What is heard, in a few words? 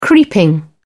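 "creeping", British English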